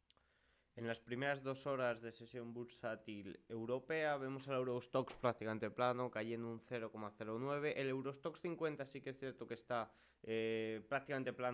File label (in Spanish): radio; noticias bolsa; jornada mixta en la apertura europea